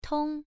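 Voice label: "tong" Mandarin Chinese, first tone, pronunciation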